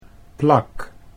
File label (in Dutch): "plak", Dutch